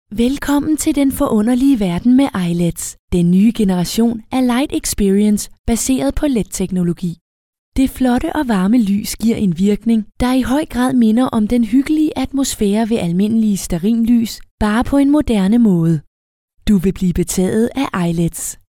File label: female danish voice over sales